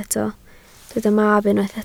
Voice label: "mab", Welsh